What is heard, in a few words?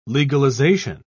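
"legalisation", British English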